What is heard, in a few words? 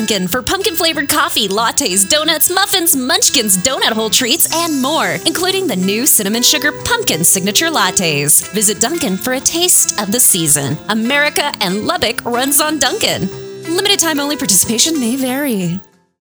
FALL POEM